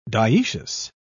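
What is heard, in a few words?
"dioecious", British English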